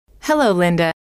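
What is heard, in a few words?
hello, female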